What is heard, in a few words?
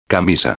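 "camisa", Spanish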